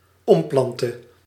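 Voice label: "omplantte", Dutch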